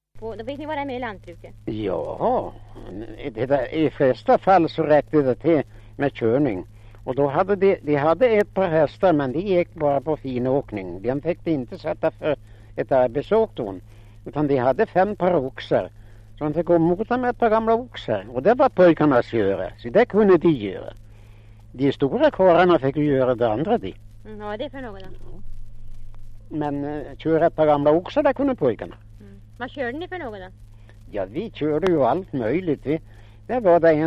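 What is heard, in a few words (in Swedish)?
Fellingsbro Västmanland, svenska sagor dialekter; Folkminnen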